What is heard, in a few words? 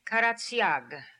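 "Karatsiag", pronunciations; Fourth Way; Beelzebub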